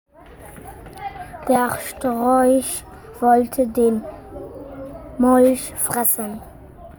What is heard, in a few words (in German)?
Sprachmemo